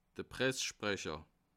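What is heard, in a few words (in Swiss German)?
"press", Bas Rhin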